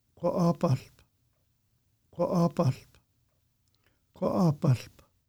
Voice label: Pacific Crab Apple Tree Musqueam name pronunciation